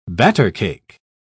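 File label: "battercake", British English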